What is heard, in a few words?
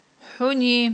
"хIуни", Aghul